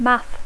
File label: "math", English; Dacco